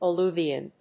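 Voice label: "alluvion", English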